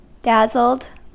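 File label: "dazzled", US English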